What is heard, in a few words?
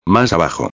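"mas abajo", Spanish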